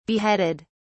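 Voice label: "beheaded", en, uk British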